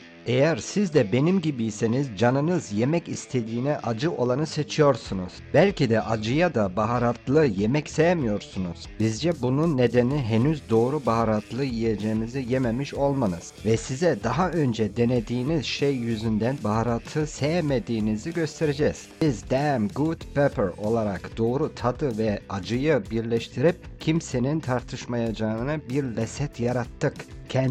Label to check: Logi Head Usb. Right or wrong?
Wrong